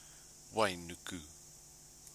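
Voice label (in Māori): "wainuku", Māori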